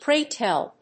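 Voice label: "Pray tell", English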